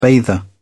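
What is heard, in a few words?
"bather", British English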